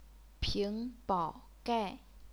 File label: ping2 bao3 gai4, chinese pinyin